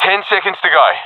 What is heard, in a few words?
ten seconds remaining, professional epic; vo; Source Model Packs; Counter Strike Model Pack; CS2